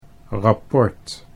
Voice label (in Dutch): "rapport", Dutch